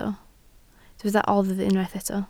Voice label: "oddf", Welsh

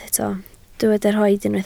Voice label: "rhoid", Welsh

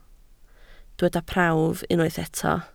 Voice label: "prawf", Welsh

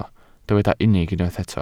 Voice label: "unig", welsh

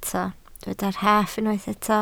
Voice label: "rhaff", Welsh

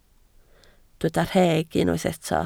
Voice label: "rheg", Welsh

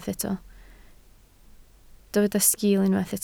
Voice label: "sgiil", Welsh

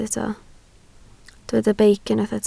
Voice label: "beic", Welsh

